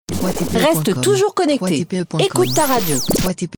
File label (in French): Reste toujours connecté, écoute ta radio, femme